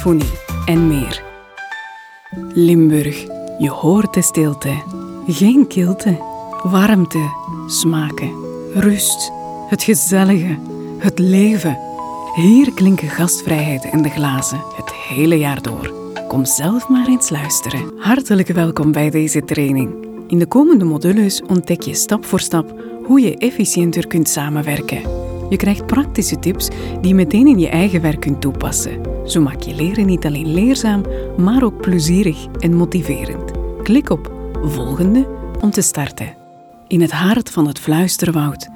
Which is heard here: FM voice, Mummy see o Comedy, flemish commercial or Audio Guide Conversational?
flemish commercial